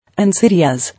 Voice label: "Insidious", English